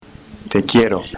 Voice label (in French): espagnol